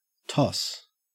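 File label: "toss", Australian English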